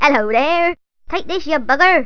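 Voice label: female half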